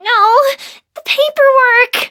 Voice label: hit, en; cookie run

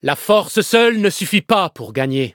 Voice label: levelup fr; cookie run

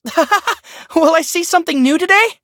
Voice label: battle en

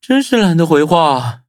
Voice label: touch, zh hant

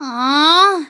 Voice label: defeat, en; Cookie Run

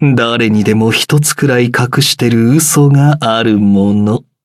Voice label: levelup ja; Cookie Run

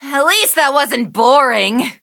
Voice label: defeat, en; Cookie Run

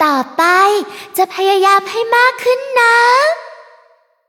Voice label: level up, th; cookierunwiki

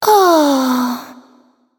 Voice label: defeat, fr; cookie run

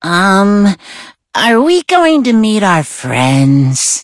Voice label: loading, en

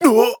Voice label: hit, fr; cookie run

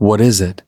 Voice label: touch, en; cookie run

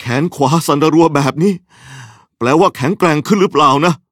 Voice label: level up, th; Cookie Run

